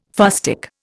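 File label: "fustic", Indian English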